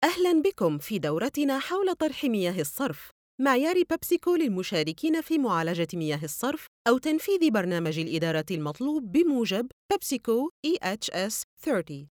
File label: arabic